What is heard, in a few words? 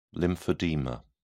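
"lymphedema", English, British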